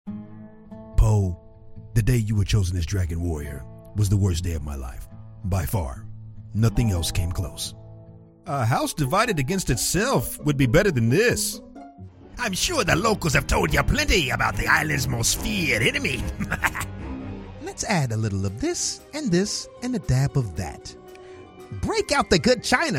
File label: english american animation